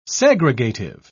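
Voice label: "segregative", British English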